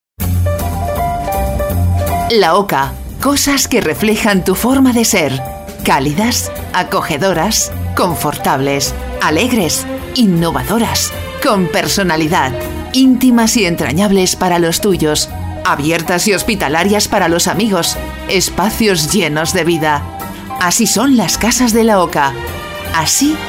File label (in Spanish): CORPORATIVA (CON EFECTO)